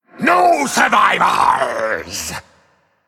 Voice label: Male Undead Attack